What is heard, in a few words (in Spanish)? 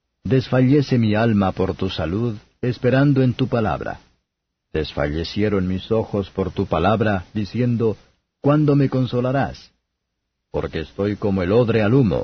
salmos spanish; 119; kaf